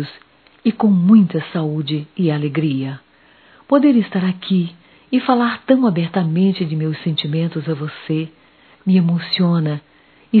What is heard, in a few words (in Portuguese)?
Natal para filho- F; Natal e ano novo; fem; Telemensagens 2017